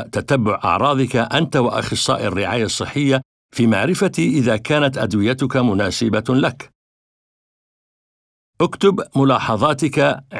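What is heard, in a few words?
Are the Medicines Working Symptom Tracker Arabic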